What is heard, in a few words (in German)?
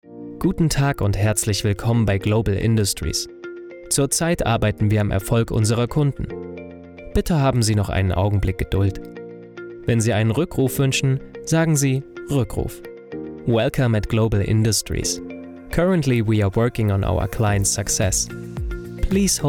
Telefonansage serioes; LOFT VOICES